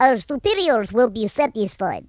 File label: brilliant, Russki